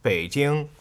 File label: "Beijing", Chinese